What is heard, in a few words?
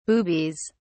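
"boobies", British English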